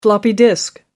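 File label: "floppy disk", British English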